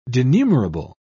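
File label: "denumerable", British English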